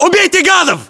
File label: order attack infantry, battlechatter, ru